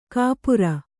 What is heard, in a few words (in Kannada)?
"ಕುಪರಿ", Kannada